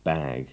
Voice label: "bag", English

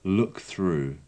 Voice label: "look through", English

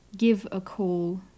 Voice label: "give a call", English

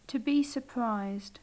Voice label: to be surprised, english

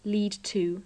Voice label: lead to, english

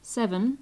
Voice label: "seven", English

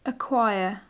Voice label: "acquire", english

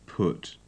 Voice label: "put", English

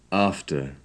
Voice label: "after", English

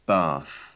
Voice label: "bath", english

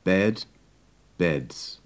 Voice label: "bed" "beds", English

